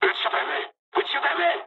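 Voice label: player friendly fire mood low, speech japanese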